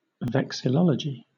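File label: "vexillology", British English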